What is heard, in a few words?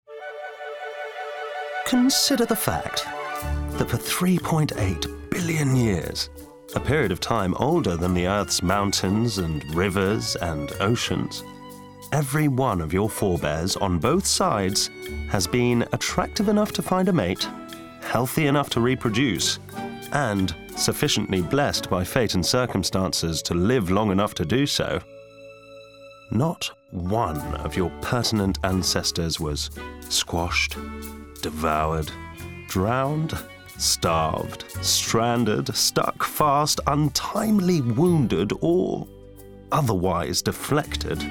Narr